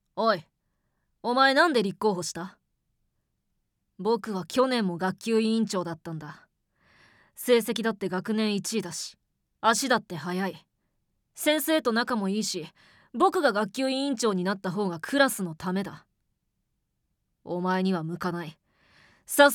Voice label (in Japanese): 生意気男子